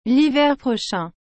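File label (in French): "l'hiver prochain", French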